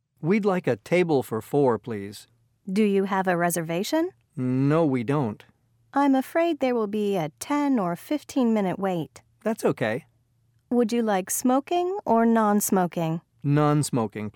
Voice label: Going to a Restaurant Dialogue Reading